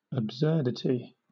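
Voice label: "absurdity", British English; pronunciation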